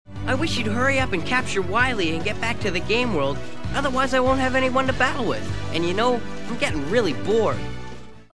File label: impatient; megaman